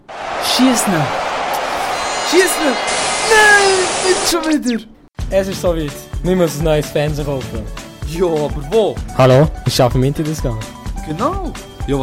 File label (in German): Werbespot; Interdiscount